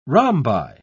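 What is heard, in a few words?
"rhombi", British English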